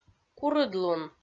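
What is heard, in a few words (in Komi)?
"курыдлун", Komi-Zyrian, female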